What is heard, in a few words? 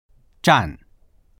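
"zhan4", Mandarin Chinese; 站; 立つ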